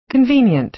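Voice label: "convenient" American English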